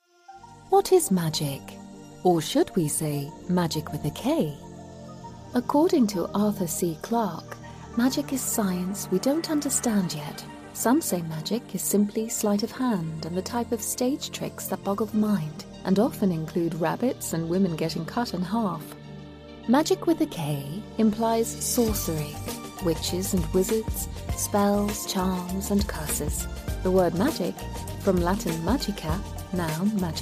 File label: english international commercial